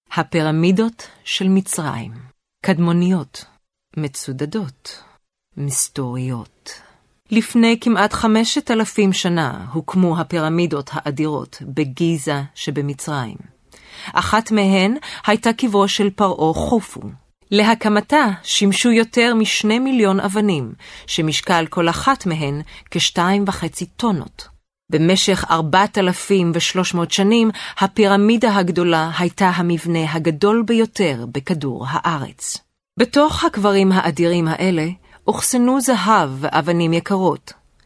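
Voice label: Hebrew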